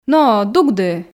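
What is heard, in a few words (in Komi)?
но дугды, Komi, female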